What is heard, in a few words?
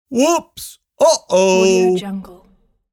cartoon goofy voice whoops uhoh